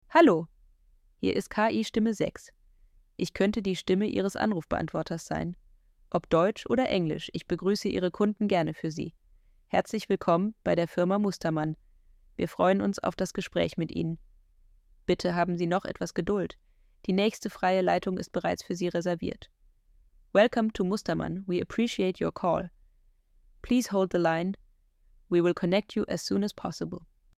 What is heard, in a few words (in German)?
KI-Stimme